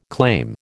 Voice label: "claim", American

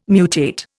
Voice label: "mutate", Indian

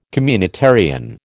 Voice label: "communitarian", American

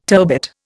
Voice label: "turbit", Indian

